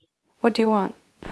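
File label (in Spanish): Voice Acting, Spanish, female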